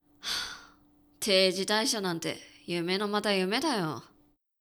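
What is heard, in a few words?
male; 男性 「定時退社なんて、夢のまた夢だよ。」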